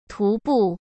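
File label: "徒步", Mandarin Chinese; chinese flashcards; hsk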